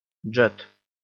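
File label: "jet", English; tryska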